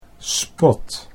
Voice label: "spot", Dutch